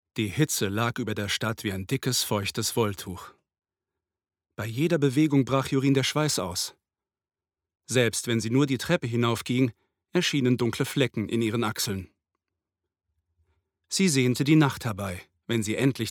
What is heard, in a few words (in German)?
Hoerbuch